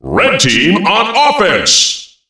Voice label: red team on offence, Announcer Voice Lines; Unreal Tournament; ut2003